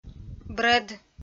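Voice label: "брӧд", Komi, female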